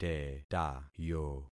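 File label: "tetayo", concatenated syllables; Affixing experiment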